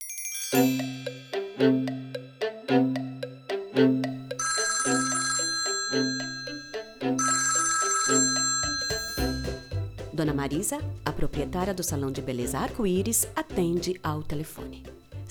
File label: Commercial